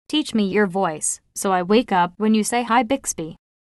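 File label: wake up ready, American English; Bixby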